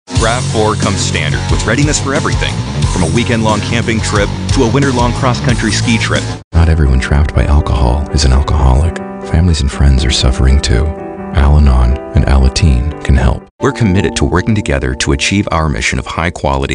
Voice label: ENG